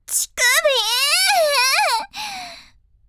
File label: h voice; おっぱい図鑑